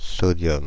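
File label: sodium, Paris French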